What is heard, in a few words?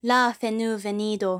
Dialogue Generic; Hollow Knight; Hornet Dialogue Generic